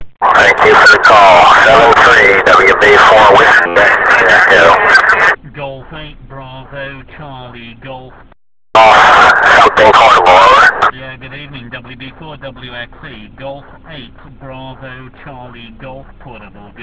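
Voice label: amateur radio contact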